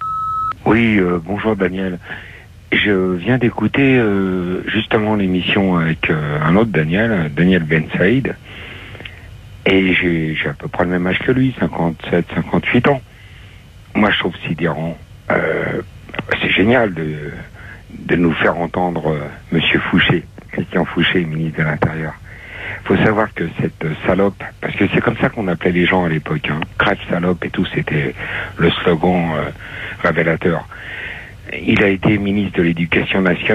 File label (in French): Mai repondeur